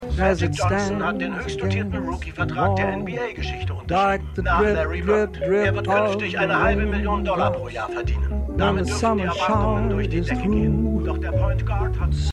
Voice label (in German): Radiosprecher; Winning Time